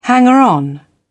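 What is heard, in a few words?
"hanger-on", British English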